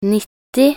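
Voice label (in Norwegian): "nitti", Norwegian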